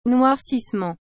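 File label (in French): "noircissement", French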